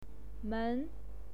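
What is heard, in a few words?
"men" second tone, pinyin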